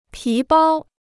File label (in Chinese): "皮包", Chinese